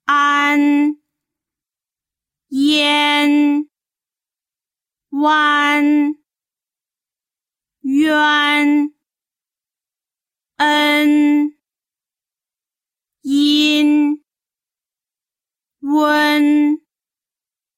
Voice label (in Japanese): pinyin biboin